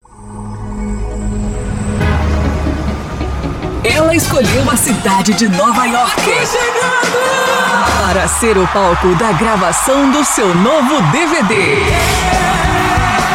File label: portuguese brazilian promos